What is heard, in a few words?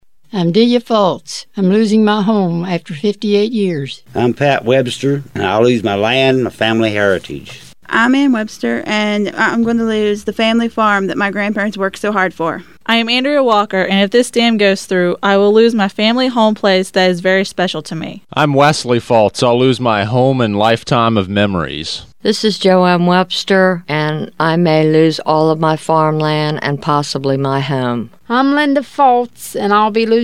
radio ad